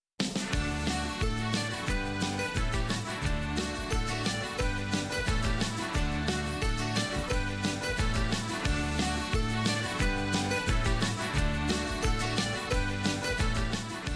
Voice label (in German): Eifeler Mundart; Kochen ist Kunst